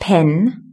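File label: "pen", English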